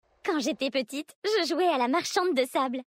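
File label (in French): French Female Blague; Vulpérins; Sable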